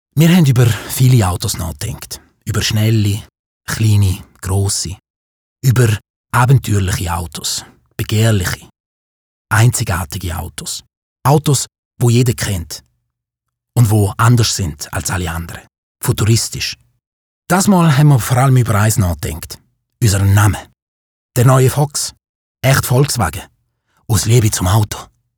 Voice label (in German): Werbung schweizer deutsch